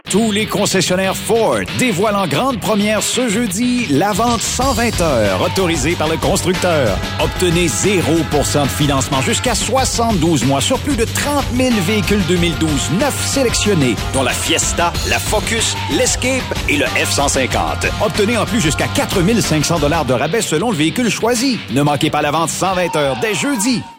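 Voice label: Canadian French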